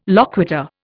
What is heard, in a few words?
"loquitur", Indian